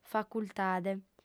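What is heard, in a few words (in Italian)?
"facultade", lingua sarda, female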